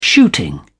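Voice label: "shooting", British English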